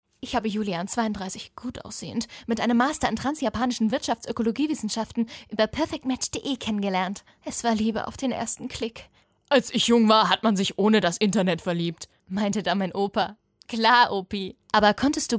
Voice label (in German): Werbung; Perfect Match